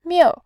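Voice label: "miu", Mandarin, tone 4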